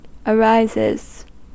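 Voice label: "arises", US English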